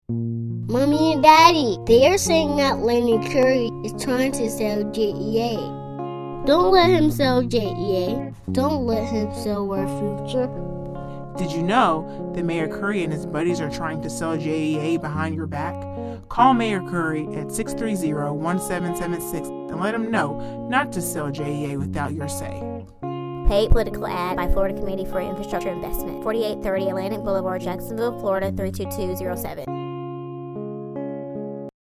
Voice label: Radio Ad